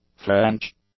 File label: "French", english; adjectives